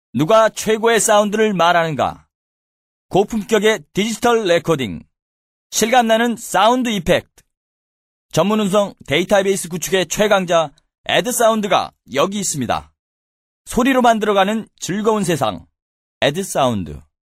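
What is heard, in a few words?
Korean, male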